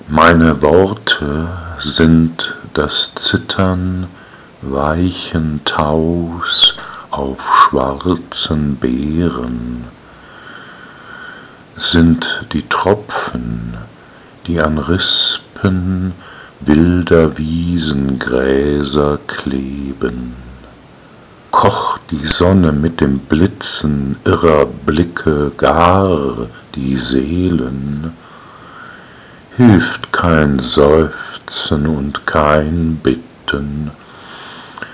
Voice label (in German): Die weibliche Stimme